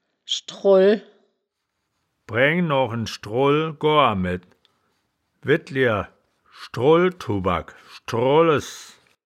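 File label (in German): "schdroll", Wittlicher Wörterbuch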